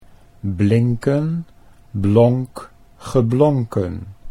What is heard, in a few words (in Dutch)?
blinken blonk geblonken, Dutch